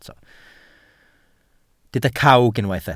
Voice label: "cawg", Welsh